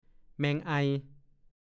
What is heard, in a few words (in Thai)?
"แมงไอ", ภาษาโคราช; คำขึ้นต้น หรือลงท้ายในการทายปัญหา ทำนองว่า อะไรเอ่ย